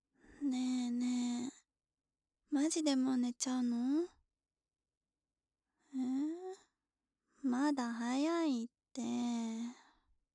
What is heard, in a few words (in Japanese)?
おやすみボイス